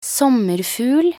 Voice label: "sommerfugl", nb_NO

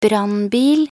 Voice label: "brannbil", nb_NO

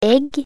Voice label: "egg", nb_NO

